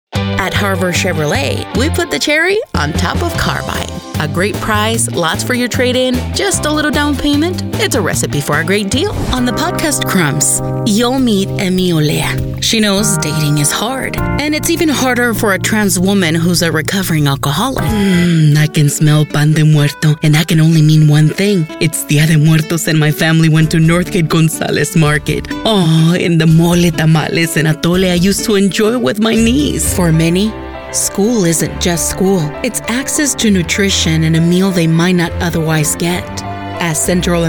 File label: Commercial English, Bilingual English & Spanish Voiceover